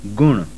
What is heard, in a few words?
voiced velar, sindhi